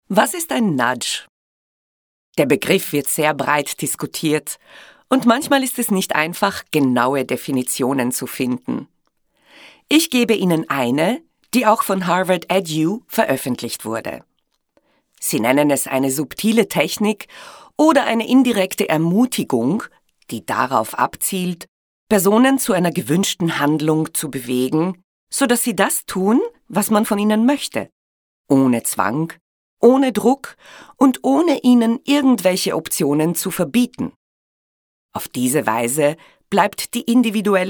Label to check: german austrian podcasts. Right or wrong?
Right